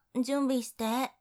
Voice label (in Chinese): 准备好ー, 女生 少女